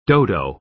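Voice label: "dodo", American English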